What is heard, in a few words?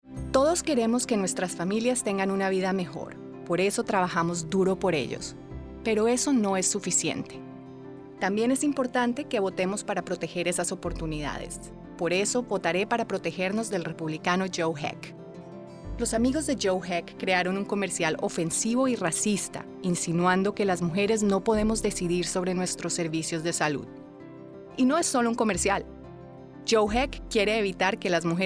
Spanish ad